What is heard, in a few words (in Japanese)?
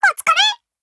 VO jp